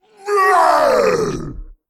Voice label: Male Abomination Attack